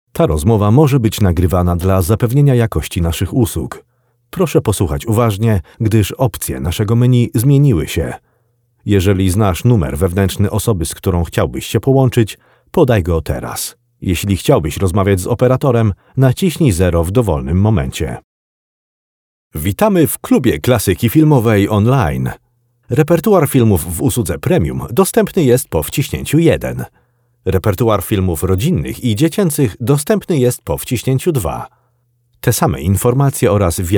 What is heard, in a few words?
polish voice actor IVR telephony